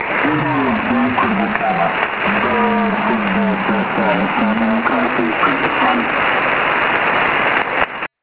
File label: Kurdistan Kurdish